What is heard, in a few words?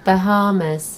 "bahamas", en GB; gcompris